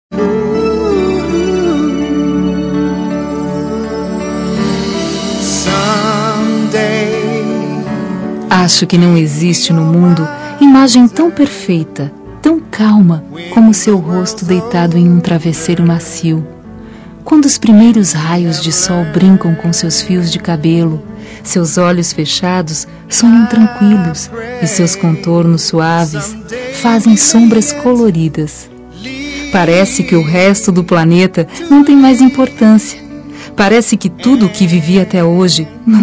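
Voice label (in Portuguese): Rom acordar ao seu lado, feminino; Telemensagens; Românticas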